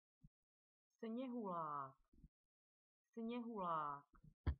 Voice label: "snowman", CZECH; sněhulák